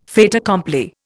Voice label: "fait accompli", Indian

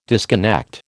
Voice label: "disconnect", American

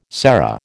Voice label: "serra", American

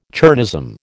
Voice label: "chernozem", American

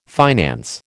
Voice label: "finance", American

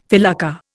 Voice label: "felucca", Indian

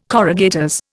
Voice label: "corrugators", Indian

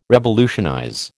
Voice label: "revolutionize", American

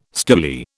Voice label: "stoolie", British

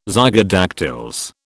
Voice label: "zygodactyls", British

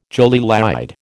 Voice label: "jolie laide", American

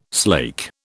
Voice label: "slake", British

